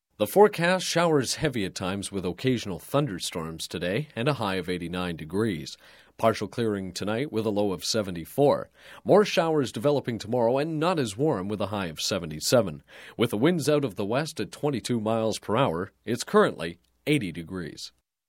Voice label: Broadcast, Weather Generic Summer Forecast, Wet; Radio; Sound Ideas; Series 2000

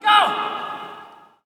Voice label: Hockey Male Go; Hockey Vocal Sounds

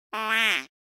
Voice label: comedy accent; NCH Library

